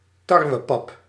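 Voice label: "tarwepap", Dutch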